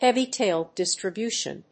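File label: "Heavy-tailed distribution", English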